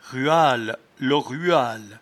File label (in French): "ruale", patois jura